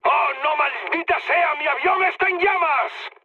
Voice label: on fire, mood med, speech; War Thunder